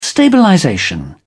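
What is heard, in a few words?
"stabilization", British English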